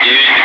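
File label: "9", Russian; Wingman; Digits; DCS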